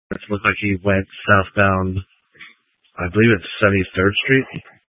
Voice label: Scottsdale Police, Simulcast